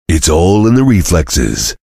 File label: cheer; svencoop; voice commands; Duke